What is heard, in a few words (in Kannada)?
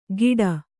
"ಗಿಡ", Kannada